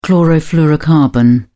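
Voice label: "chlorofluorocarbon", English, British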